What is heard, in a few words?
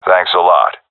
thankful; vo; Counter Strike Model Pack; CS:GO; seal diver